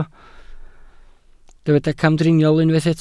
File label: "camdriniol", Welsh